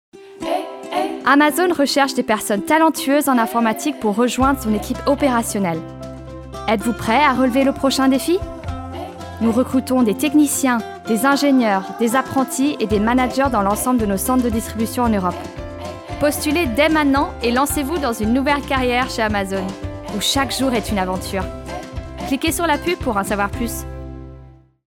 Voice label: FR Europe Recruit; Amazon

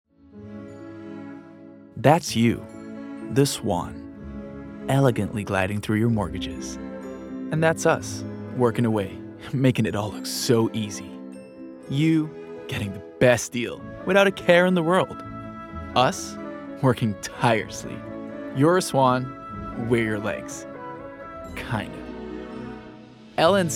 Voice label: COMMERCIAL ENGLISH

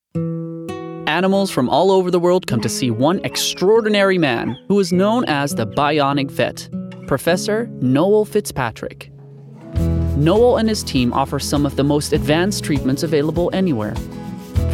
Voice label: DOCUMENTARY

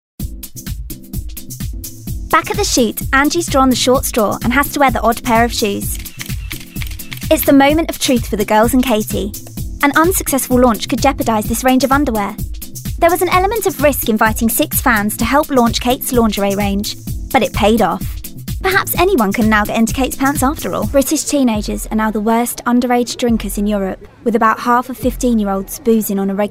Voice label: Narrative

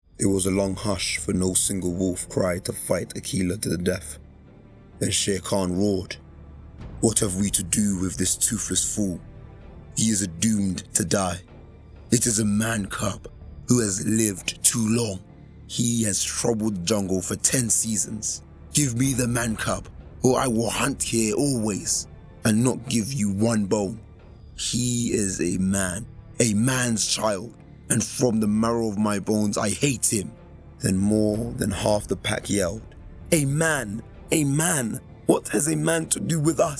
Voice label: Animation

